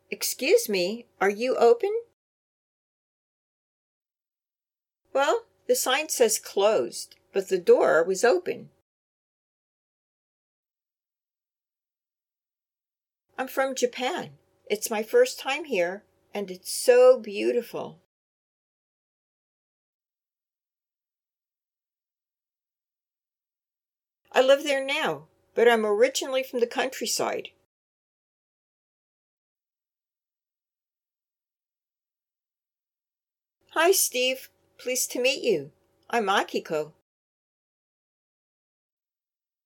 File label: Natural Dialog